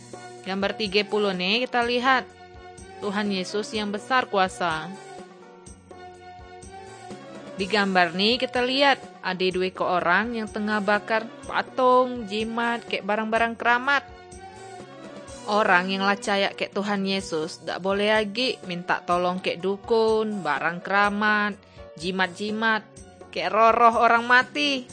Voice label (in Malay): kabar baik good news malay bangka